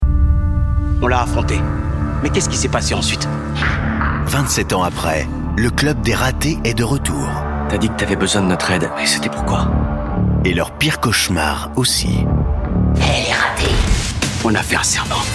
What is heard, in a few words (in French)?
version courte Bande Annonce Voix; Paris Premiere